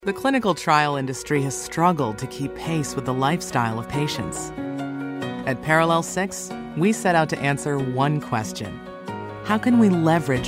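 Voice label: Explainer bright warm inviting friendly clinical technology approachable, Female Voice Over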